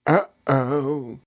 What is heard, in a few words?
"Uh Oh", Male, exclamation; Voice Box